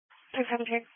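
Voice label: Police Patrol (Dispatch)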